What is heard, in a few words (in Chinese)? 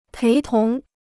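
"陪同" Chinese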